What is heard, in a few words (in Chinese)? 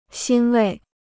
"欣慰", Chinese